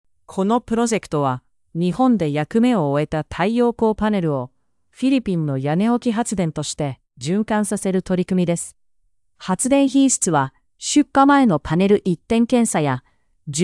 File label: narration ja; second life solar